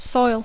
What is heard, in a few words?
"soil", English